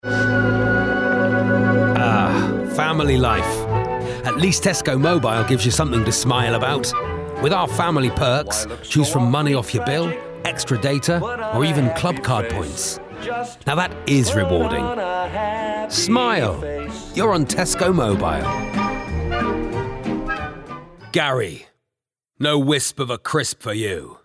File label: Commercials